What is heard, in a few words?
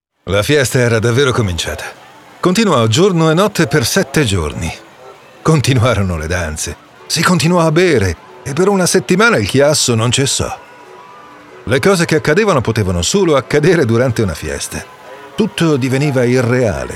AUDIOBOOK